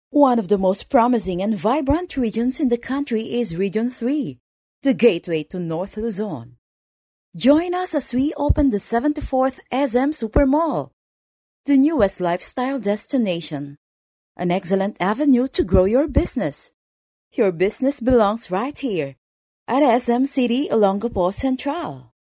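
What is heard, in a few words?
Tagalog Female English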